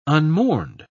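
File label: "unmourned", British English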